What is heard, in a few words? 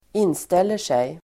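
"inställer sig", Swedish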